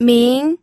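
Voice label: "ming", second tone, pinyin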